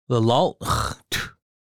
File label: record, Lushootseed; ləlalx̌txʷ